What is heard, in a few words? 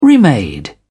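"remade", British English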